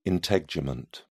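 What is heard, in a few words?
"integument", British English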